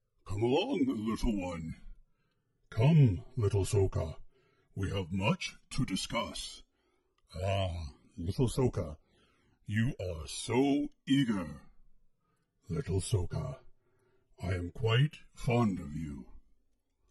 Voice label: Vocal Characterization and Effects Test; Plo Koon